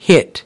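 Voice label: "hit", English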